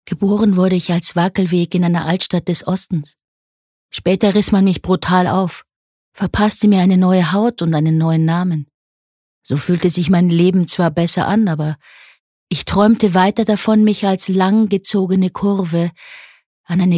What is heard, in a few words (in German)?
Der Weg Sanft